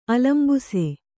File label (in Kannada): "ಆಲಂಬಿಸು", Kannada